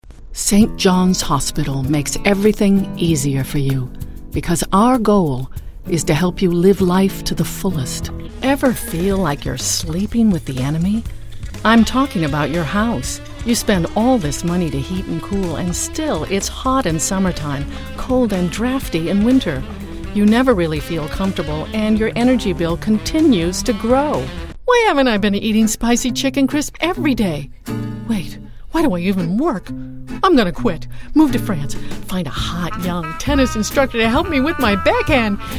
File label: Voice over